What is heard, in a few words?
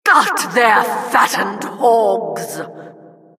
announcer, vo, killstreak